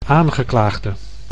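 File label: "aangeklaagde", Dutch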